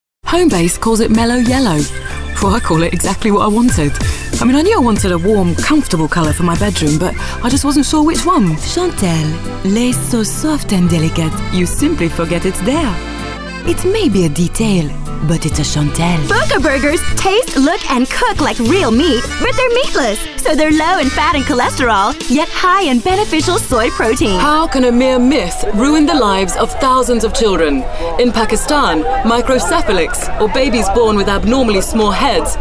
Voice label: Accents and Character Combined